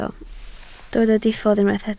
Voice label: "diffodd", Welsh